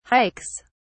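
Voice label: "hikes", British English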